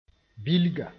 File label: "bilga", moore